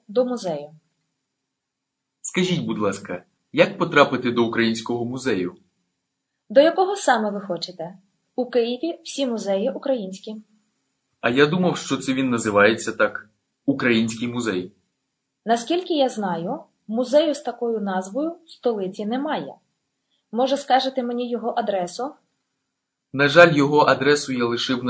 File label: Dialogue, Ukrainian